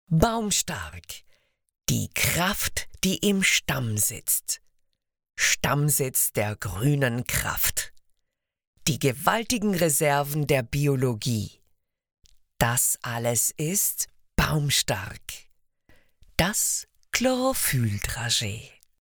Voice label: german austrian promos